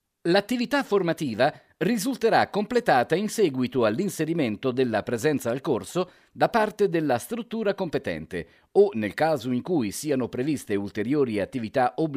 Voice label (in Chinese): 意大利语男 外语 小语种